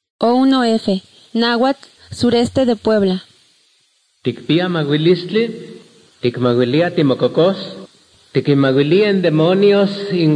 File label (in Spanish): spanish mexico